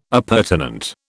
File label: "appurtenant", British